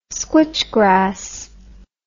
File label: "squitch grass", English